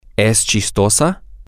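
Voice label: Spanish, male; is she funny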